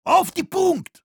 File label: heavy fight on cap, de